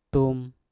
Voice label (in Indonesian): "tum" tembak, Mpur